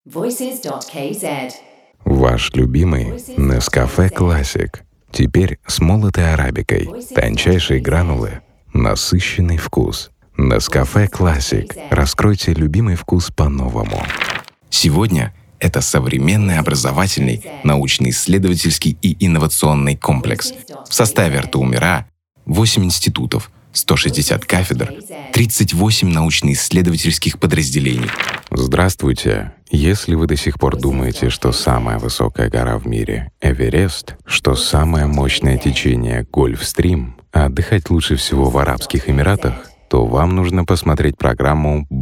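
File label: Russian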